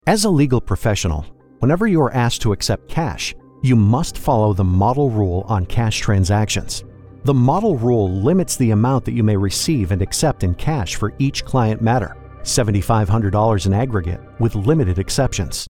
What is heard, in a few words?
e-learning Legal; e-learning Voiceover Demo